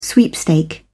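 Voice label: "sweepstake", British English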